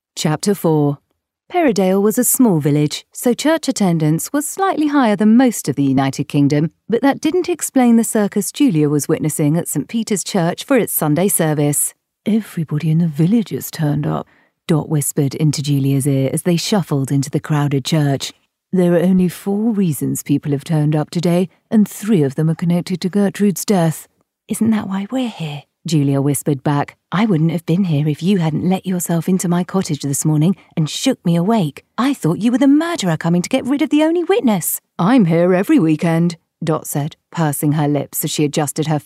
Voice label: audiobooks; reels